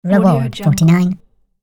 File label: cartoon voice says reward